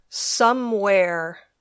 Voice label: "somewhere", English

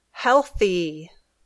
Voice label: "healthy", English

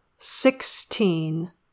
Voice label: "sixteen", English

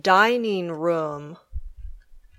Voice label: "dining room", English

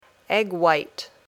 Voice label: "egg white", English

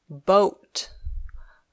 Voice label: "boat", English

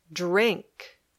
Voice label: "drink", English

